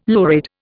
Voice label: "lurid", Indian

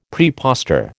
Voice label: "praepostor", American

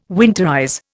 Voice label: "winterize", Indian

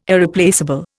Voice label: "Irreplaceable", Indian

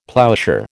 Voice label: "ploughshare", American

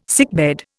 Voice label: "sickbed", Indian

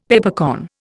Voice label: "Peppercorn", Indian; Dictionary

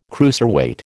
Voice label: "cruiserweight", American

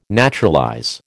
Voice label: "naturalize", American

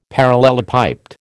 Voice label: "parallelepiped", American

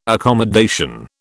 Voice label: "accommodation", British

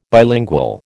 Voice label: "bilingual", American

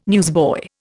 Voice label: "newsboy", Indian

